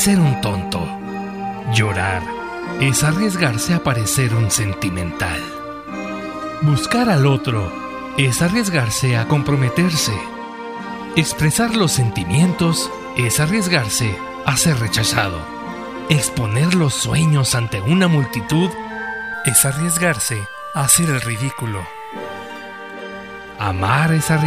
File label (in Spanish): locutor emotivo el dilema